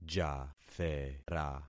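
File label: "jathera", concatenated syllables; Affixing experiment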